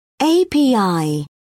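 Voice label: "api", British English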